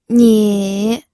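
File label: "nie", Mandarin Chinese, third tone; pinyin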